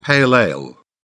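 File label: "pale ale", British English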